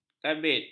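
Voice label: "kabit", Malay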